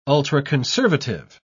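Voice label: "ultraconservative", British English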